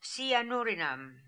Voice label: "Sianoorinam", pronounciations; Fourth Way; Beelzebub

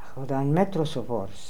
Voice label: "Akhaldanmetrosovors", Beelzebub pronounciations; Fourth Way

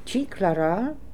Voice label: "Chiklaral", Beelzebub pronounciations; Fourth Way

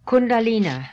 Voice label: "Kundalina", pronounciations; Fourth Way; Beelzebub

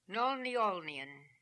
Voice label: "Nolniolnian", pronounciations; Fourth Way; Beelzebub

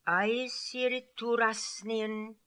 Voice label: "Aiessirittoorassnian", pronounciations; Fourth Way; Beelzebub

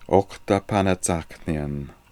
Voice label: "Okhtapanatsakhnian", pronounciations; Fourth Way; Beelzebub

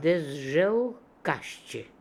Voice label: "dezjelkasheh", pronounciations; Fourth Way; Beelzebub

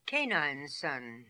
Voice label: "Canineson", Beelzebub pronounciations; Fourth Way

